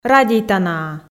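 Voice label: "радейтанаа", Komi, female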